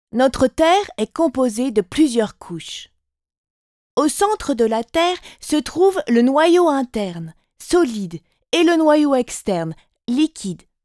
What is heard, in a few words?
Teenager